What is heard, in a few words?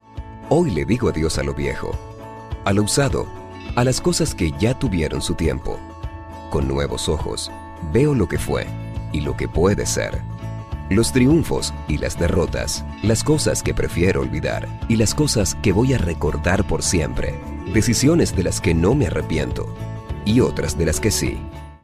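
Spanish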